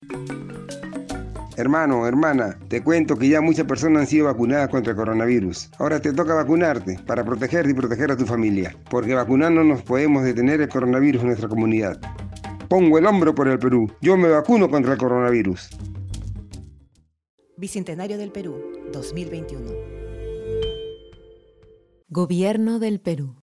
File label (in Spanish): castellano vacunate para protegerte del coronavirus; localidades afroperuanas; vacuna contra el coronavirus